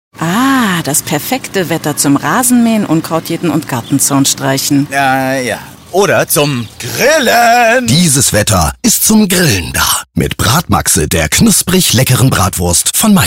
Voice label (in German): Werbung; Bratmaxxe